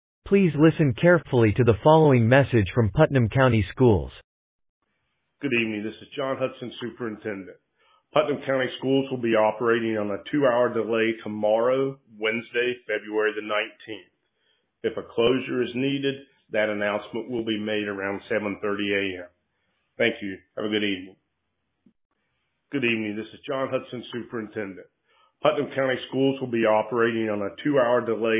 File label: Voicemail